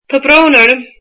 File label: Sorry, irish